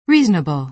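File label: "reasonable", American English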